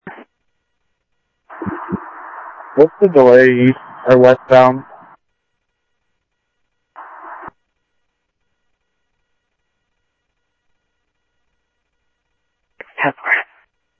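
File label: Light Rail Security Light Rail, scanner audio; ThompsonPeakHigh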